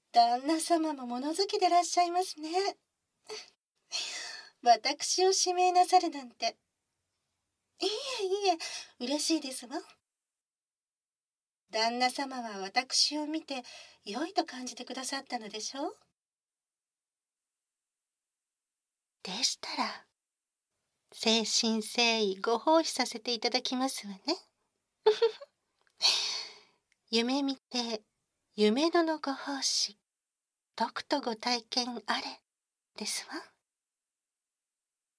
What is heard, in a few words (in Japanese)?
耳洗浄（バイノーラルマイク収録 効果音あり大きめ）, ASMR